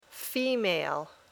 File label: female